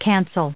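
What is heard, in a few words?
"cancel", English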